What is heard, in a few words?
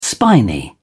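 "spiny", British English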